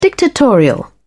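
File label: "dictatorial", British English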